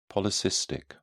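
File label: "polycystic", British English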